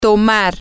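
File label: to drink, spanish, female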